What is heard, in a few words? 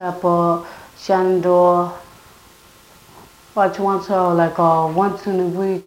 Interview with 15 year old male New York New York, american english dialect